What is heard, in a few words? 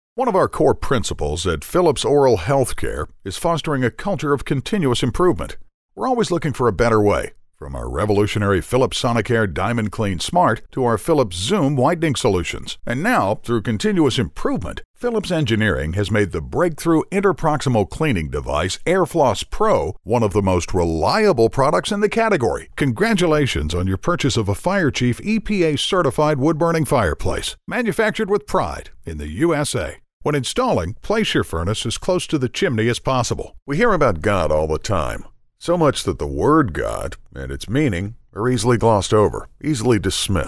Narrations